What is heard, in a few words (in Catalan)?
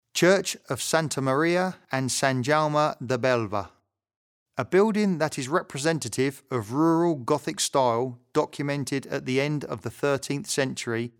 locucio angles; sant jaume bellver; bellver de cerdanya; patrimoni cultural